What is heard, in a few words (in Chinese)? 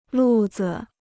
"路子", Chinese